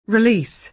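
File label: "release", American English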